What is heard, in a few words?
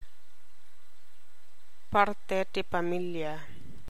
Ilocano